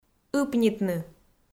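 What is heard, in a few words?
"ыпнитны", Komi, female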